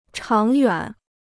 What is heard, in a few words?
"长远", Chinese